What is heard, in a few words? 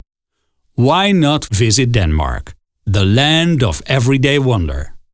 european english reclame payoff; visit denmark